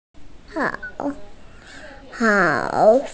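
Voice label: "min2", Mandarin Chinese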